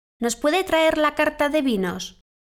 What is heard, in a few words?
conversation